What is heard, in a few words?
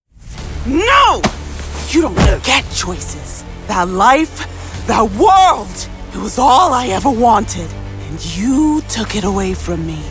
animation